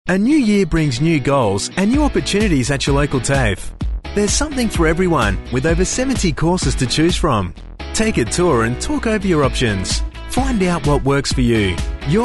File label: TV sample